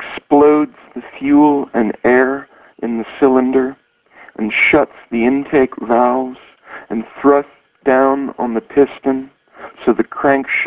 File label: poem; Campion; Fire